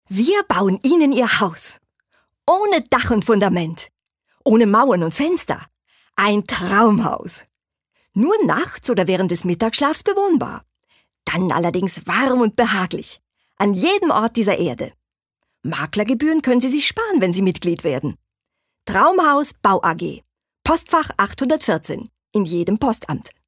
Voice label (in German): Werbung Dynamisch